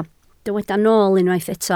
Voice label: "nool" Welsh

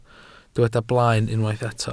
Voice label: "blaen", Welsh

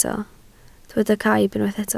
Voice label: "caib", Welsh

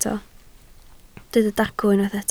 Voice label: "dacw", Welsh

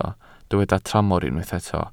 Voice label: "tramor", Welsh

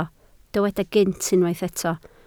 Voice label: "gynt", Welsh

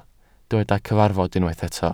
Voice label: "cyfarfod" Welsh

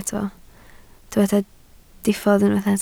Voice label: "diffodd", Welsh

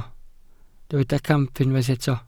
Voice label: "camp", Welsh

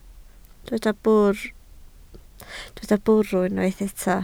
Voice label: "bwrw", Welsh

